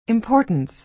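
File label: "importance", American English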